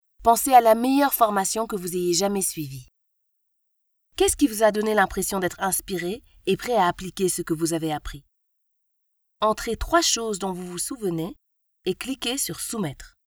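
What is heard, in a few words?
African French female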